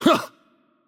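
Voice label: Attack Light, Brazilian Portuguese; VO; bloom minigame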